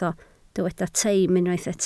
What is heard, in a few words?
"teim", Welsh